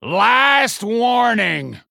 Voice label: Male Human Hero Power; Thelwater; Scabbs